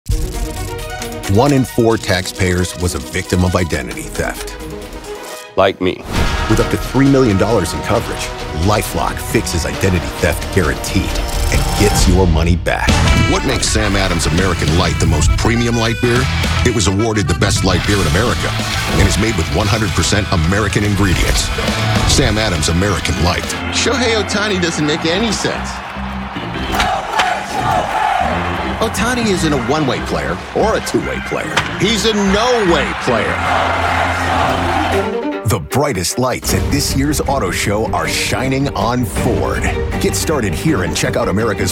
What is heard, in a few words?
COMMERCIAL